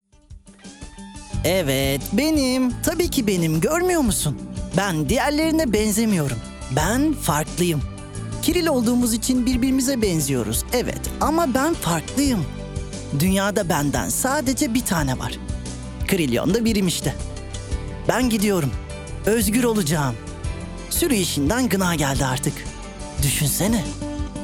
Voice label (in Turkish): animatik karakter, seslendirme